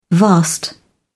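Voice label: "vast", British English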